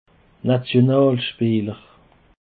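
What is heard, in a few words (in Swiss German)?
"nationalspeeler", Alsatian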